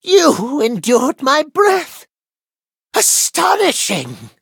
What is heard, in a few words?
defeat, en; Cookie Run